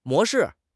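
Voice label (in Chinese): "模式", Chinese; HSK; HSK6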